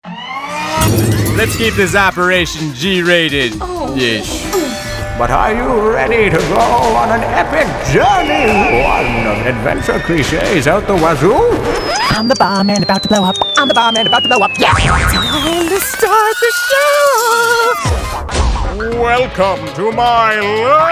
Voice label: Animation

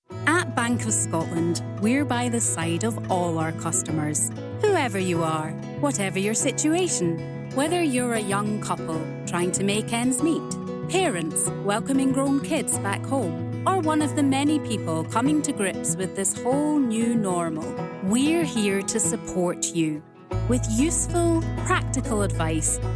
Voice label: Commercial; Bank of Scotland

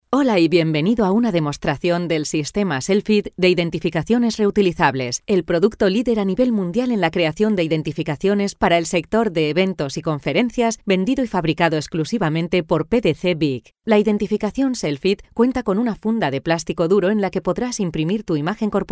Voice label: spanish e learning

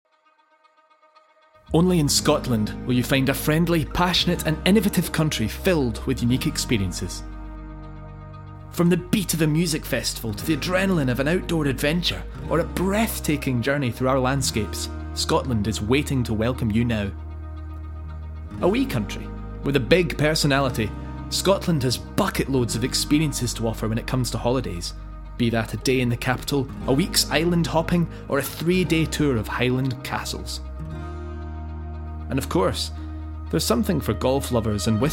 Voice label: Commercial Scottish Tourism

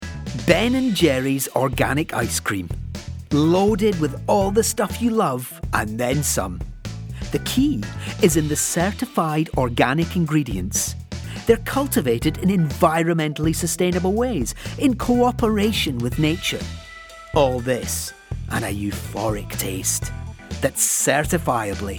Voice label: Commercial; Ben Jerry

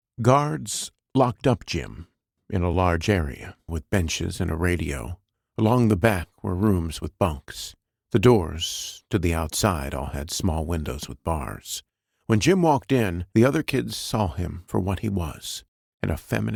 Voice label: fast audio book

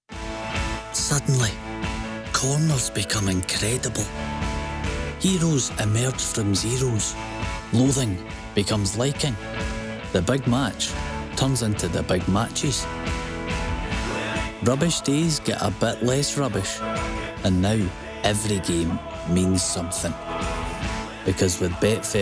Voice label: Commercial; Betfair

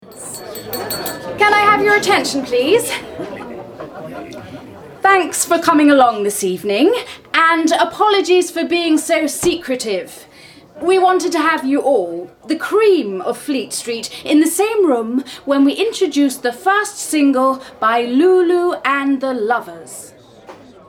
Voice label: Radio Drama Extract; BBC

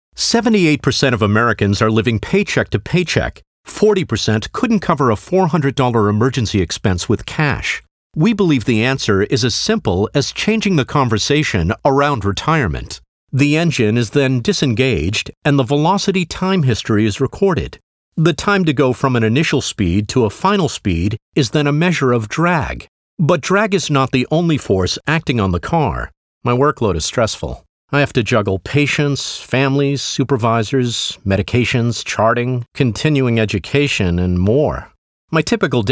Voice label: Elearning

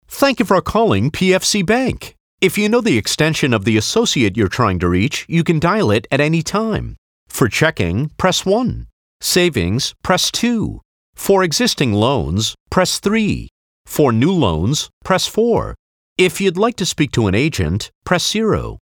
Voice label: IVR

